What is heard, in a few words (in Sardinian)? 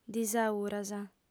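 "disauras", female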